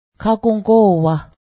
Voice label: forest, Cayuga; kagǫgó:wah